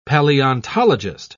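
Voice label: "palaeontologist", British English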